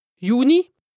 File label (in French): "Jüni", lexique indispensable haut rhin, Alsace; Saint-Louis